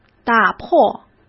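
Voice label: "打破", Mandarin Chinese; hsk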